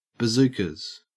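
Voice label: "bazookas", Australian English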